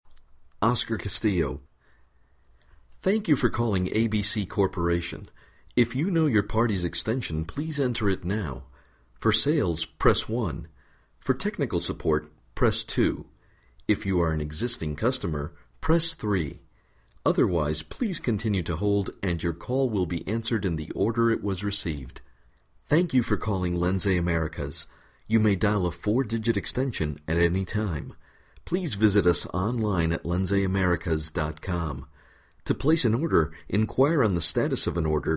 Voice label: Phone Voice Prompts